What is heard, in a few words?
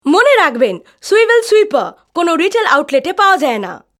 BENGALI VO